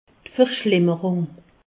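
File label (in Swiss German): "verschlimmerung", Alsatian; medecine; aggravation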